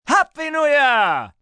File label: New Year Brit M, male; Holiday Sound Effects; Occasion, event